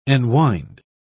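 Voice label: "enwind", English, British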